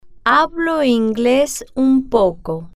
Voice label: "hablo Ingles Un Poco", spanish